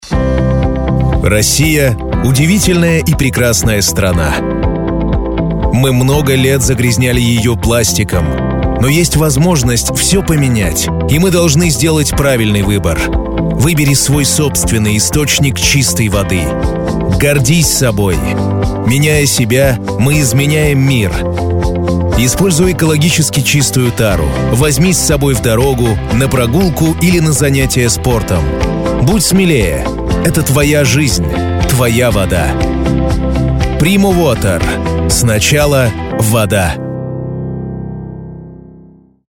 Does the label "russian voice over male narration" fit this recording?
Yes